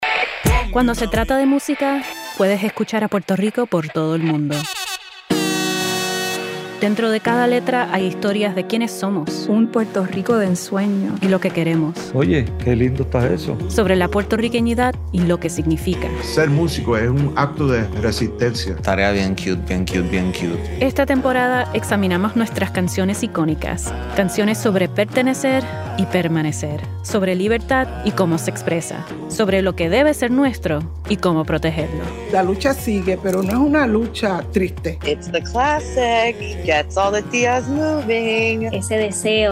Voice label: trailer spanish; La Brega